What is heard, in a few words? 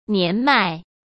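"年迈", Mandarin Chinese; chinese flashcards; hsk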